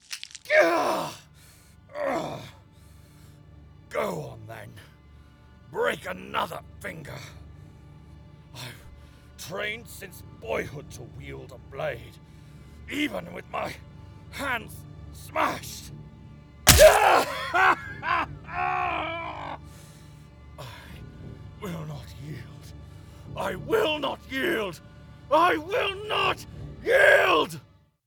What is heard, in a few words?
Super Intense Char Demo